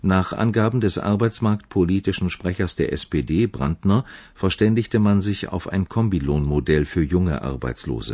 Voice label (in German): arbeitsmarktpolitischen Sprechers, deaccented nouns; dirndl adjective noun